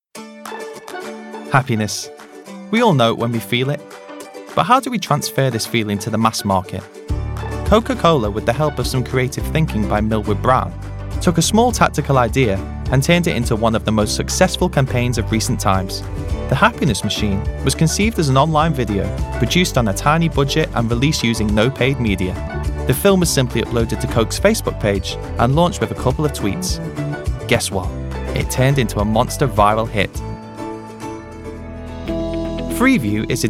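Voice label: English Northern M Corporate; voice over; accents of england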